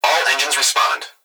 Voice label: Emergency Human Young Male Voice Fire Dispatch All Engines Respond; emergency effects